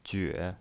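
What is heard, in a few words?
"jue", third tone, pinyin voice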